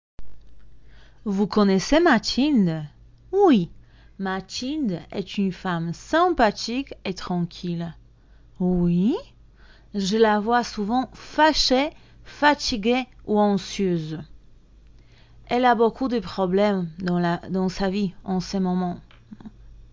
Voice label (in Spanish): dialogo clase1 carecter feminino